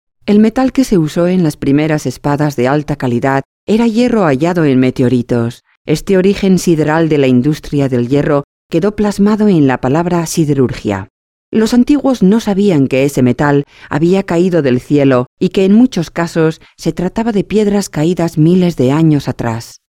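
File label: ES F corporate, Spanish, female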